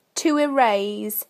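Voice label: "to erase", British English; PictureDictionary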